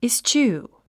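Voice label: "eschew", English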